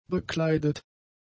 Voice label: "bekleidet", German; adjectives